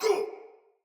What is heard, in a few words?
Pain Soldier Sword Agent Male; Battle; Sinecure Melee